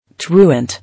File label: "Truant", English